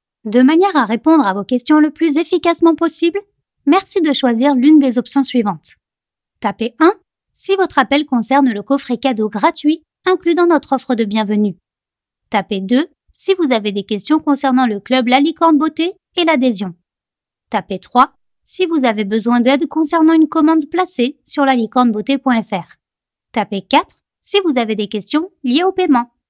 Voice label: 法语女 外语

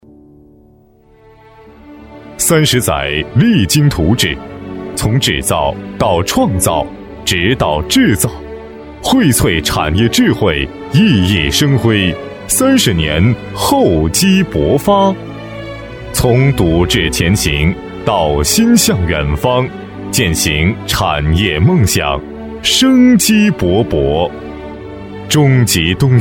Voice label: 男 宣传片 激情; 企业; 中集集团

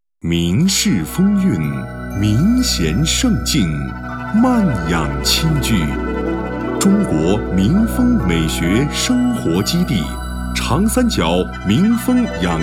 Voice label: 男 宣传片 旅游 大气; 温泉度假旅游

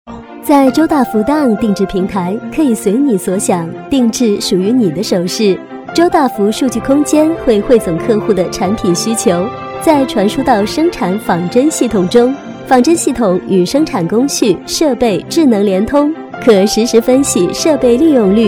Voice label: 专题 产品 定制平台 温柔; 周大福定制平台

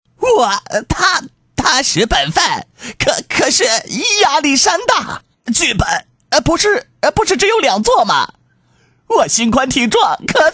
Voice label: 动画 模仿 动画人物模仿, 男; 趣多多

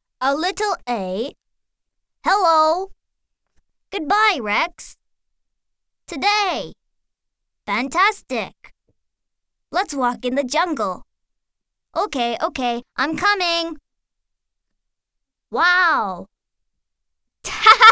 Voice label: 美式英语, 外语

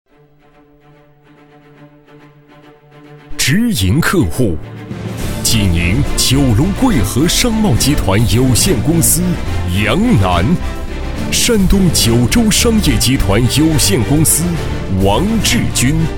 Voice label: 专题 颁奖, 男; 2019海尔颁奖配音稿

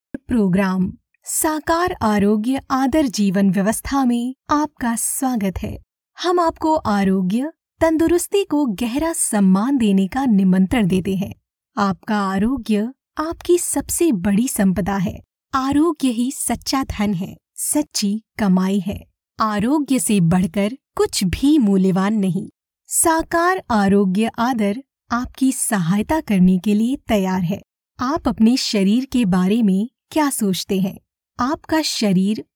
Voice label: 印地语女 外语 小语种

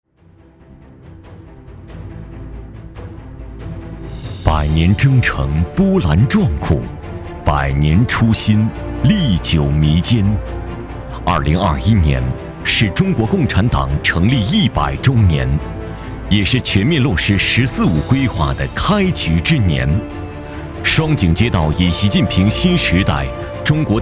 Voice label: 男 专题 党建 浑厚

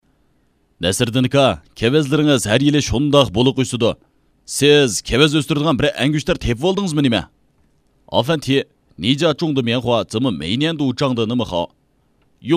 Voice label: 维吾尔语男 外语 小语种

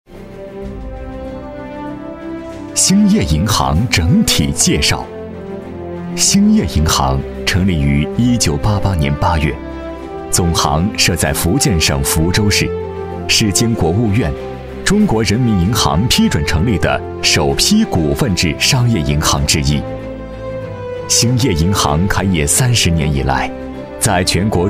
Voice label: 男国 专题 银行 沉稳; 兴业银行介绍

